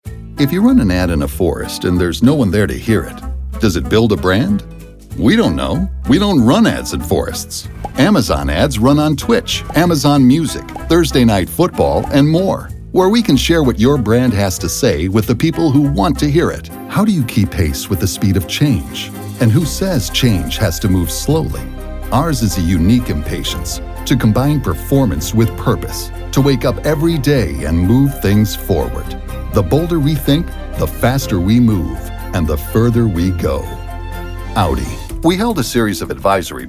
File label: Narration Demo